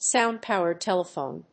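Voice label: "sound powered telephone", English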